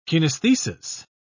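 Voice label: "kinaesthesis", British English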